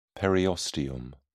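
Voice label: "periosteum", British English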